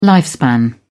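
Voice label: "lifespan", British English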